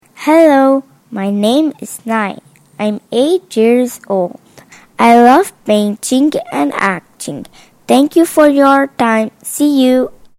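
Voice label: English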